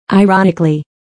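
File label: "ironically", English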